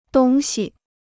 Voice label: "东西", Chinese